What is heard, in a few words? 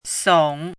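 "song3", Mandarin pinyin syllable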